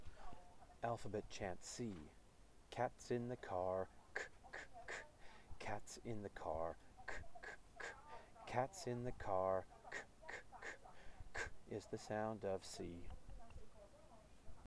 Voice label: alphabet chant 'c' fast

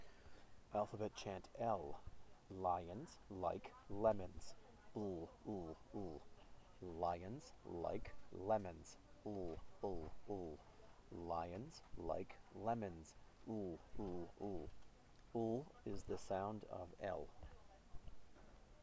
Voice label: alphabet chant 'l' slow

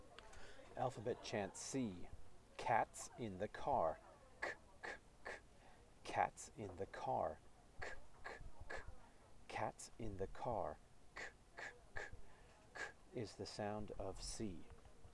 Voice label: alphabet chant 'c' slow

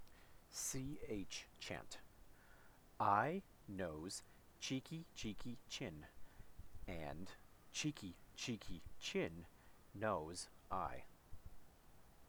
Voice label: ch chant

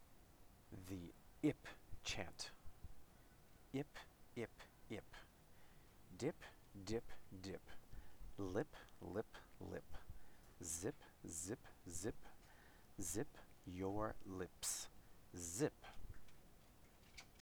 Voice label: ip Chant

